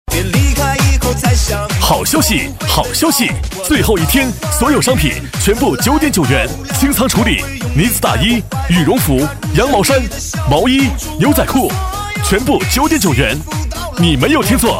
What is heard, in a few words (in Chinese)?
男60号 促销; 大衣促销; 国语男声配音; 交广主播